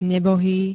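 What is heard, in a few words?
"nebohý", Slovak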